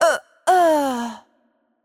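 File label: Death, Polish; pl PL; bloom minigame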